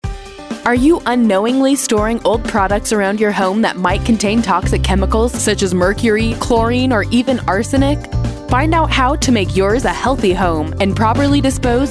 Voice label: radio ad; HHW; KOA